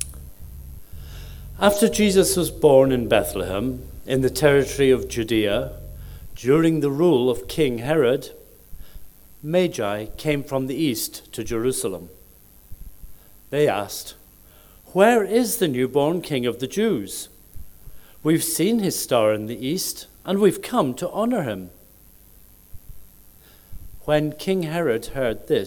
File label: read; reading from Matthew; watchnight; midnight; follows the three wisemen to Jerusalem where they meet king Herod